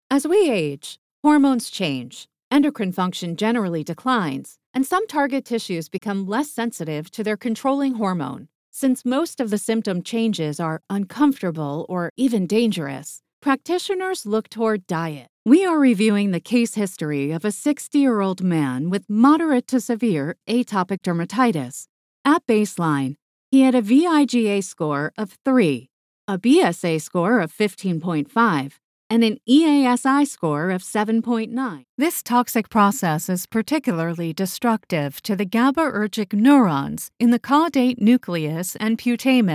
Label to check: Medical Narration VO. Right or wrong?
Right